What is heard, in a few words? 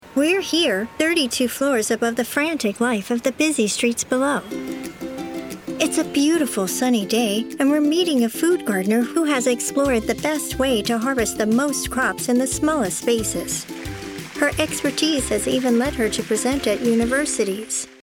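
English, US, female, commercial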